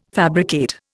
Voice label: "fabricate", Indian

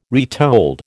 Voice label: "retold", American

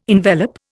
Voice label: "envelop", Indian

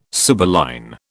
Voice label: "sibylline", British

